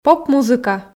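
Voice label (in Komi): "поп-музыка", Komi, female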